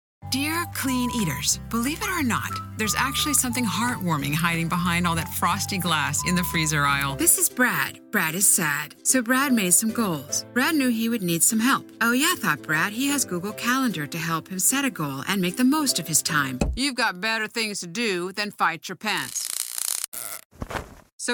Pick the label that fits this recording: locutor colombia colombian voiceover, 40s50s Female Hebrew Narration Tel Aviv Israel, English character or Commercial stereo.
Commercial stereo